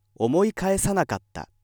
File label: male